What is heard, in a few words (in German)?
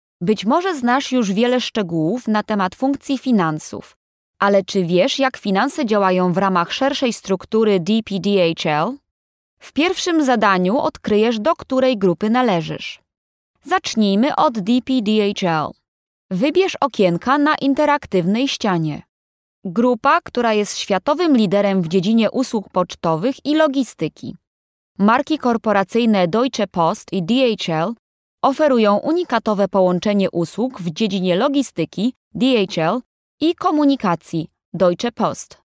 Nachvertonung Film Sprecherin Polnisch